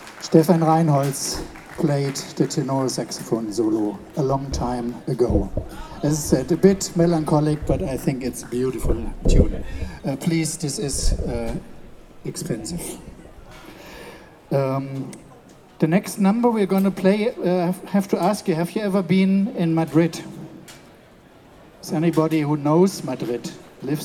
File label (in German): Ansage